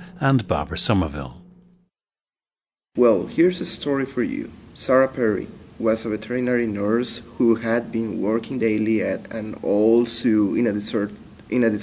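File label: costa rica, International Dialects of English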